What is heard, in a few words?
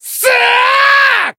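limit break, Japanese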